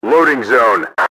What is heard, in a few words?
loading zone, enemy english team orders; XBOX